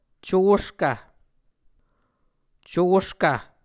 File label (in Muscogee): "coskv", Muscogee